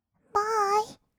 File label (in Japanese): "バーイ", kawaii voice; 挨拶